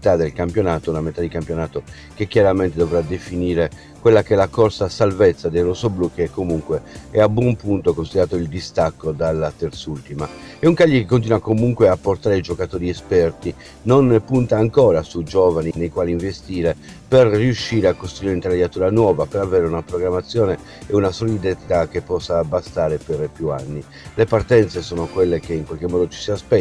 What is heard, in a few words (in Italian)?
Radiolina Sport News; EMME